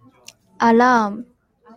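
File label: "alarm", JPN, female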